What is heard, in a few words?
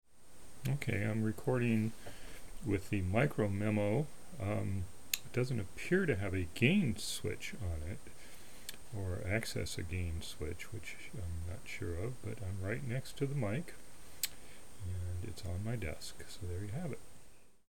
iPod Micro Memo vox